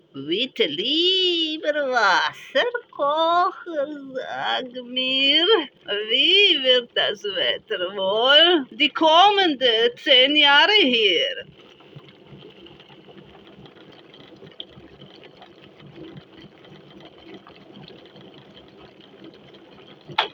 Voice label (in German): Jingel Orakel fragt Wasserkocher; Orakel Dula